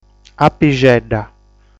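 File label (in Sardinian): "Apixedda", Sardinian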